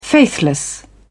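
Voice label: "faithless", British English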